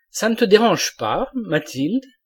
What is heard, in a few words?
"ne te drange pas Mathilde", male